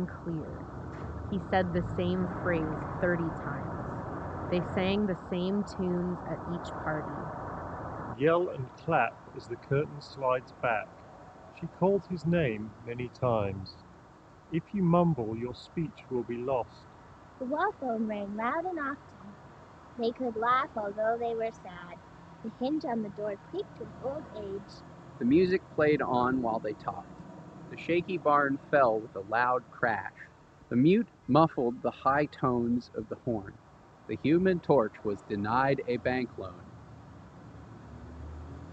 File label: House of Marley Positive Vibraion XL wired Street microphone sample